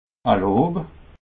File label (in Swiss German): "lob", alsace